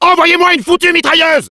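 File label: need sentry, French; Demoman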